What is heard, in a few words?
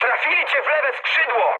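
Polish left wing mood high; War Thunder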